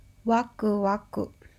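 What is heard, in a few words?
"waku waku", Japanese; ワクワク – waku waku; manga onomatopoeia sound effects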